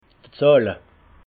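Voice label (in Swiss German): "zahle", Alsatian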